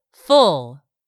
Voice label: "full", English; 3000 words

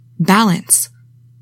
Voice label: "BALANCE", english; 3000 words

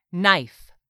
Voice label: "knife", English; 3000 words

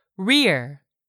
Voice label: "rear", English; 3000 words

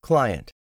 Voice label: "client", english; 3000 words

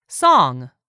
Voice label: "SONG", english; 3000 words

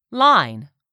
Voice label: "LINE", english; 3000 words

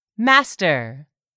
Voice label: "master", English; 3000 words

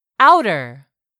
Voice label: "OUTER", English; 3000 words

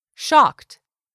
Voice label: "shocked", English; 3000 words

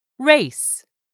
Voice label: "RACE", English; 3000 words

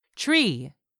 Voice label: "TREE", english; 3000 words

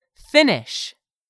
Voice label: "finish", English; 3000 words

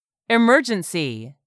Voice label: "emergency", English; 3000 words

